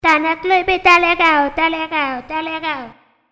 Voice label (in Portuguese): caricata gurizin vinheta clube fm; caceres